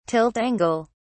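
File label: "tilt angle", British English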